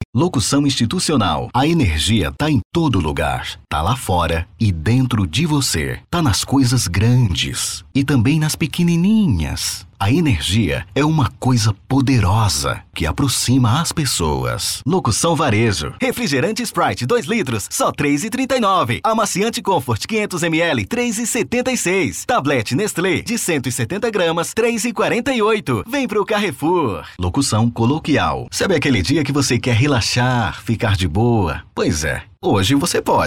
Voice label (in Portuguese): banco de vozes banco de voz locutor vinheta banco de voz off jingle; off jingle oi zap